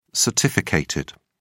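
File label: "certificated", British English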